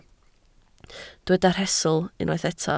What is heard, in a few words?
"rhestl", Welsh